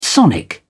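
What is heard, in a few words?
"sonic", British English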